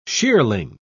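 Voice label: "shearling", British English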